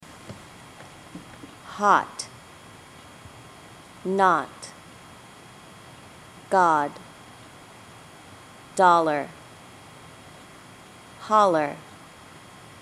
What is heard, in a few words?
American Practice, dialect samples, usa